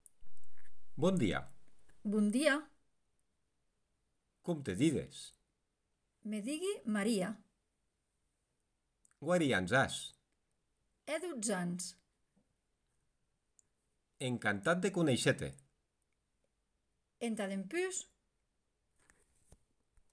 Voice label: Dialogo en aranes

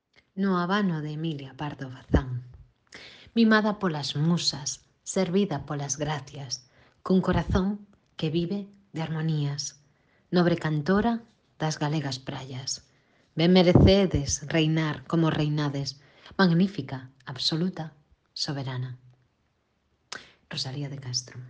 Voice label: Poema gallego; Rosalia de Castro

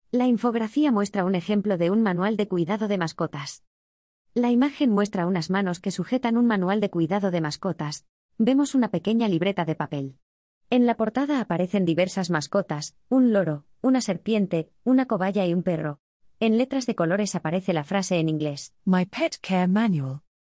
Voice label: Audiodescripcion; Mini Manual

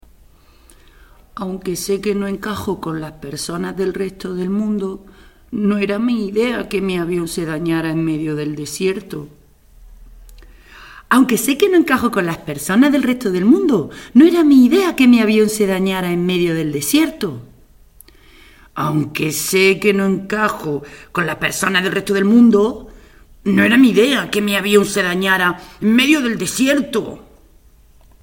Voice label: audio casting femenino

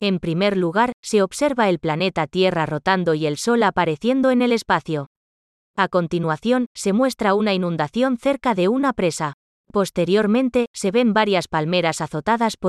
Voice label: Audiodescripcion; greenhouse effect

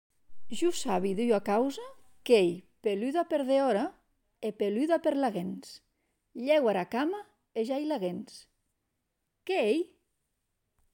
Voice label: Adivinanza aranes Calcetin

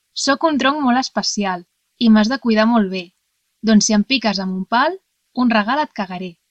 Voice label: Adivinanza catalan endevinalla

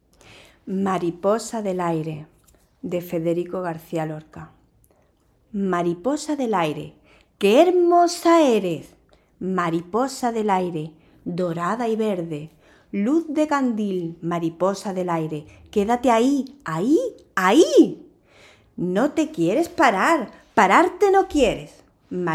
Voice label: Poema castellano; Lorca

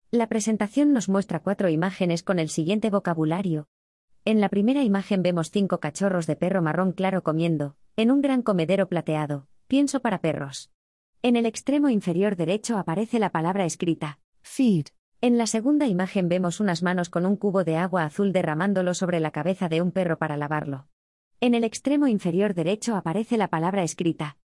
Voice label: Audiodescripcion; Caring for pets

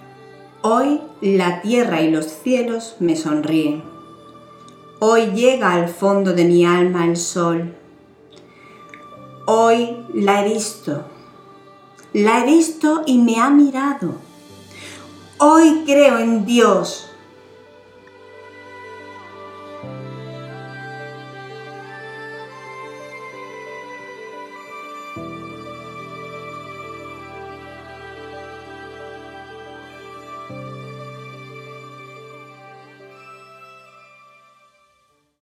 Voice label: Rima XVII Bequer VOZ y MUSICA

